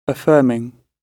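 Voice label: "affirming", British English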